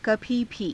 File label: kabhii bhii, Hindi